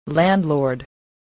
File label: "landlord", English